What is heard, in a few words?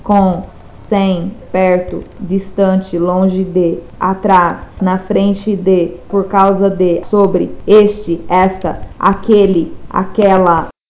portuguese words